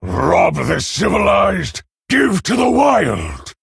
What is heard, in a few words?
Male Orc Ogre Start; en